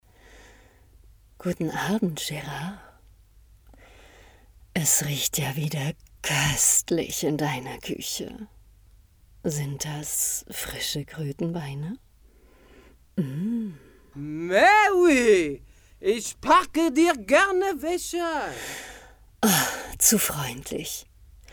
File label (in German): verfuehrerisch